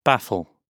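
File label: "baffle", British English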